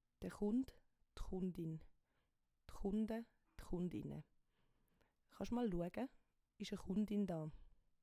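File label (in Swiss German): "de chund", Swiss German; Wortschatz Kapitel 1; Kapitel 1 Hauptwortschatz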